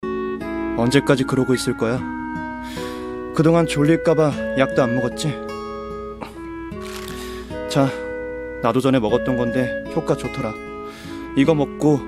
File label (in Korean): 10대 소년